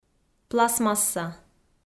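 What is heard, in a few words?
"пластмасса", Komi, female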